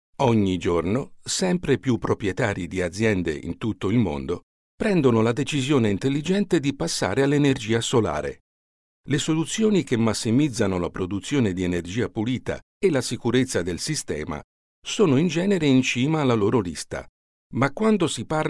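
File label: Italian, general; GoLocalise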